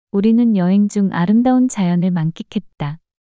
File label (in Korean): 우리는 여행 중 아름다운 자연을 만끽했다 문장 여자